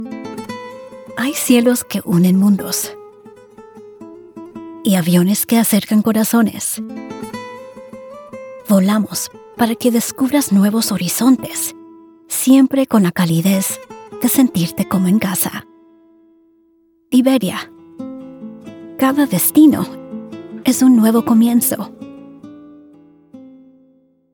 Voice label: Spanish Spot; Iberia